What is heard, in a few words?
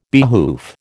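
"behoof", American English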